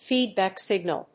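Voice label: "feedback signal", English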